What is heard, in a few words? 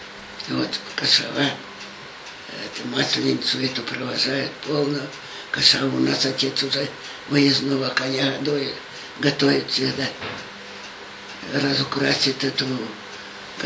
middle ob dialect; кошева